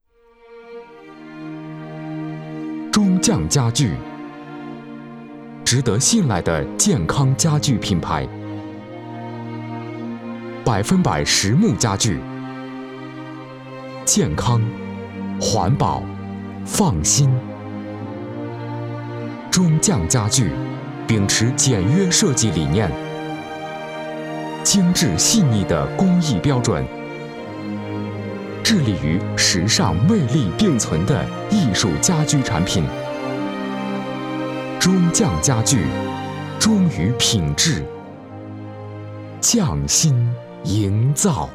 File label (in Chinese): 电视家居广告; 忠匠家具